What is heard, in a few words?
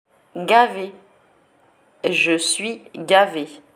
"gavé, je suis gavé" French; slang words